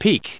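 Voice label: "peak", US English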